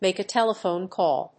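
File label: "make a telephone call", English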